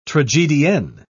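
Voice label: "tragedienne", British English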